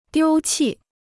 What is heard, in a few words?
"丢弃", Chinese